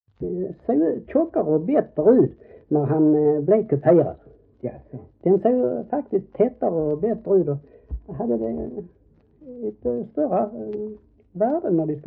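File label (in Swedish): Äspö Skåne, Svenska sagor dialekter; Folkminnen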